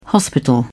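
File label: "hospital", British English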